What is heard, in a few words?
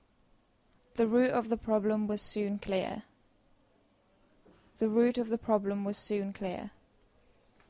Dictation; phonology